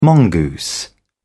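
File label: "mongoose", English, British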